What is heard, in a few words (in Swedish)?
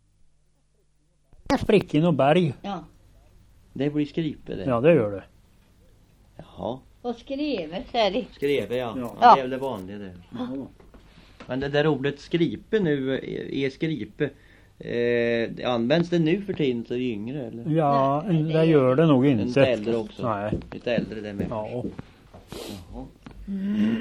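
Hägerstad Östergötland, Svenska sagor, dialekter; Folkminnen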